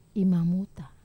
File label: "mamuta", Wamesa